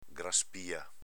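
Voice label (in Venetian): "graspia", Veneto dialect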